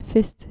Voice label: "fist", dutch